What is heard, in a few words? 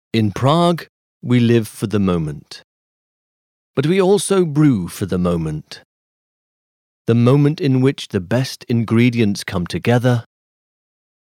B2C Advertising